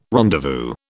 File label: "rendezvous", British